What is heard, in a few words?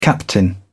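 "captain", English, British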